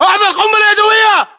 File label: inform incoming grenade, english battlechatter